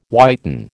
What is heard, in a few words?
"whiten", American